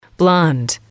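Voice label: "blond", female, English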